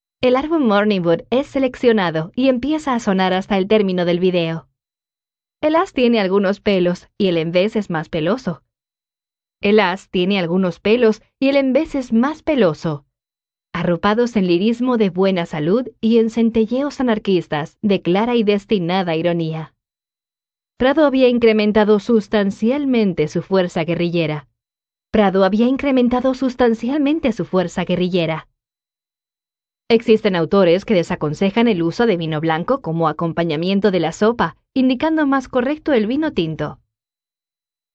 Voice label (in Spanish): Spanish South America, F